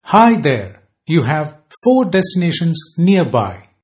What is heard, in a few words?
four, male